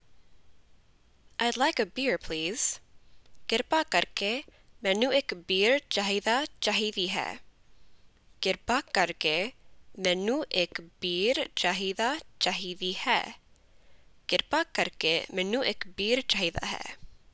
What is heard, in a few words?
Punjabi Id like a beer please